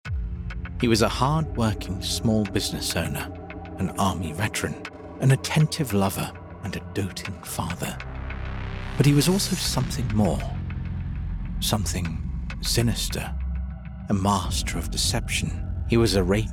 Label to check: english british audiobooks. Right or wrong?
Right